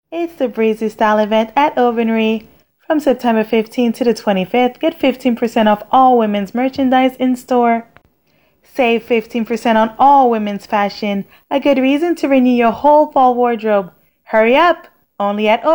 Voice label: english voice over